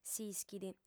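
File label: "s'ishit", female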